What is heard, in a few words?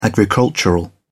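"agricultural", British English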